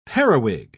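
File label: "periwig", British English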